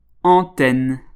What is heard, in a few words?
"antenne", French